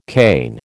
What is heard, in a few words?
"Cain", American